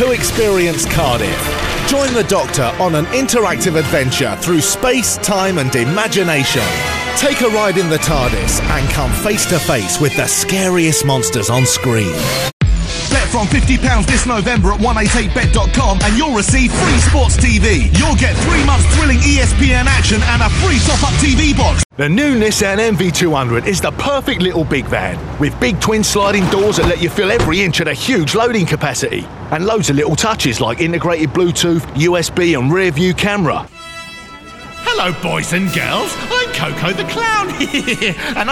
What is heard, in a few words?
Voice Over Artist, Commercial; London